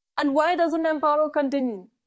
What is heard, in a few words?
Voice Acting es F